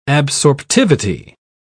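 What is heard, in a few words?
"absorptivity", British English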